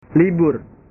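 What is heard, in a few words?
"libur", Indonesian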